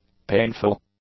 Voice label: "painful", English; adjectives